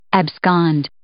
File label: "abscond", English